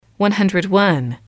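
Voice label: "one hundred one", English, female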